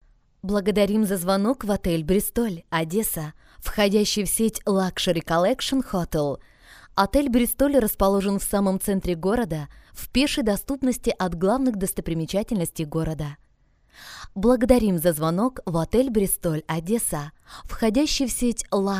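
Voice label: Russian, IVR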